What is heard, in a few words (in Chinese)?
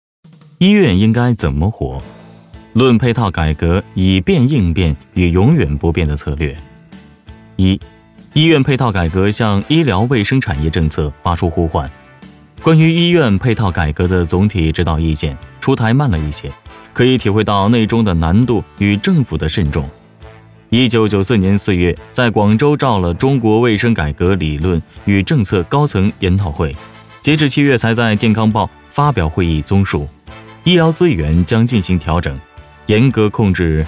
男 医院配套改革解说 宣传